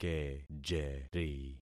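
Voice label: "kejeri", concatenated syllables; Affixing experiment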